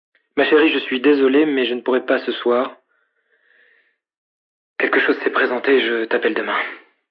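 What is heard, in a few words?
Phone regret French, Male; Telephone Voice; VoiceBox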